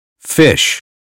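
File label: "fish", American English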